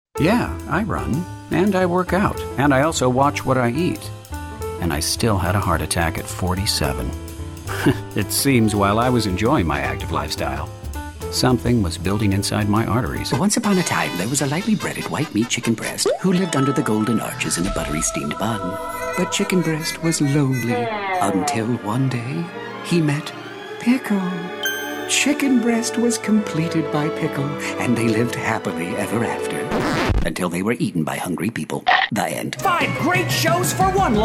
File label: commercial, voiceover; Commercial Voice Over Demo